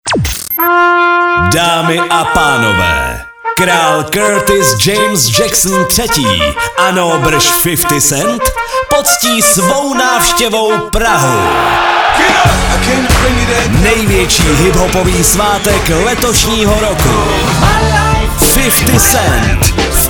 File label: promo; evropa2